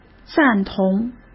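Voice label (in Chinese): "赞同", Mandarin Chinese; hsk; chinese-flashcards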